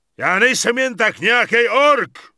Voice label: Peon Pissed; wowpreklad; czwow